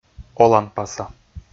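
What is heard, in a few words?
"оланпаса", Komi, male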